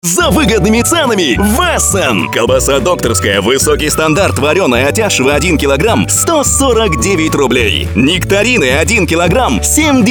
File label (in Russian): Радио; SF ЭССЕН с 17 июля; Колбаса Докторская Нектарины